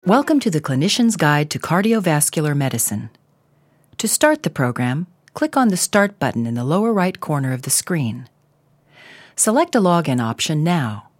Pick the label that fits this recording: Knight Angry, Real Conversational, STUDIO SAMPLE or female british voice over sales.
Real Conversational